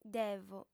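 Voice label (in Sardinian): "devo", female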